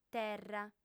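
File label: "terra", Sardinian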